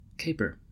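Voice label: "caper" English, American accent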